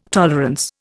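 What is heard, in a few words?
"tolerant", Indian; Dictionary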